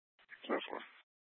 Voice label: PD Dispatch

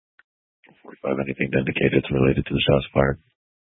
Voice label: Police Dispatch

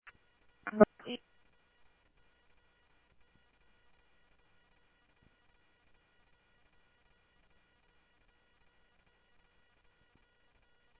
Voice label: Scottsdale Police, scanner audio

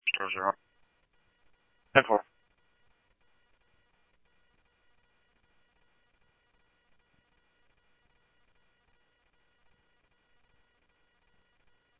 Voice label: Scottsdale Police, scanner audio

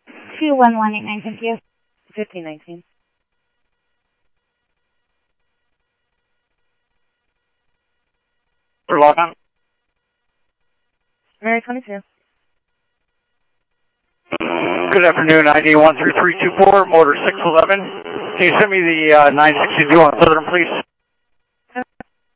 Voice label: Mesa Simulcast, MESA PD, scanner audio

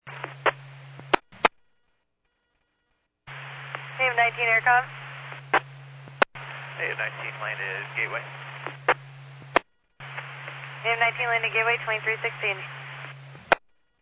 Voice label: Medevac, scanner; NativeAirAmb

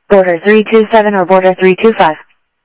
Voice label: scanner audio trunk

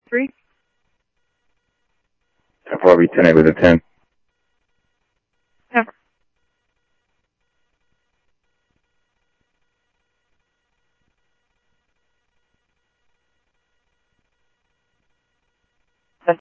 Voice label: scanner audio trunk; ASU; ASU PD F-1

